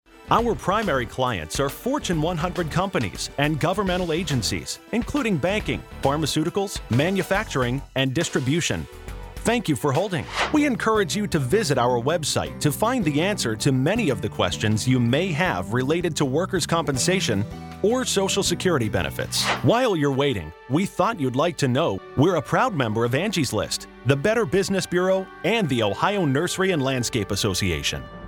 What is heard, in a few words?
male